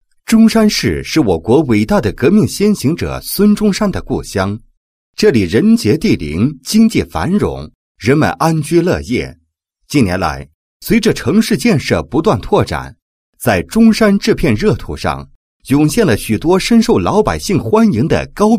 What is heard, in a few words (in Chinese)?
男 房地产 宣传, 配音案例; 某市万科金色家园宣传